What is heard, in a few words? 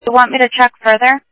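Sheriff West County, scanner audio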